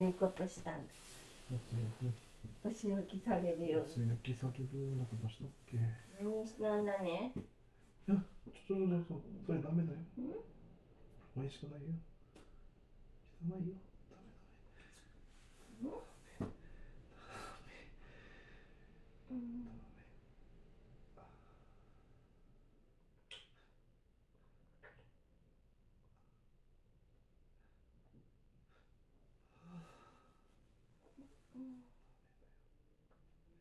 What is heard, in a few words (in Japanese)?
男性声多め; 騎乗位; Audio Denoise